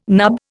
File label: "nub", Indian